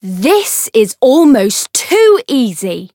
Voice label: variable chant; tiramisu

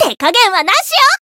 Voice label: finish, jp; myrus

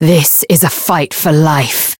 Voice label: chant

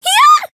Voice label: damaged, Voice; ilulu

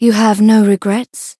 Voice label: chant; toova

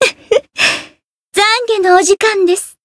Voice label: Voice limitbreak, jp; rosetta

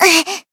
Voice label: damaged, jp; nagi

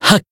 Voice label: Voice attack jp; dunarith

